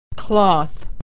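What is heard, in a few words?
"cloth", American English; Words with Silent Letters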